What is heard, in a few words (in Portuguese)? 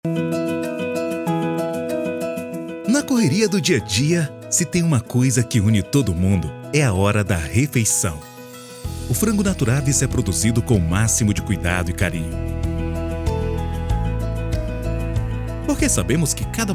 spot; naturaves